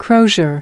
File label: "crozier", us